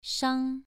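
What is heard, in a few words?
"shang", Mandarin Chinese syllable, second tone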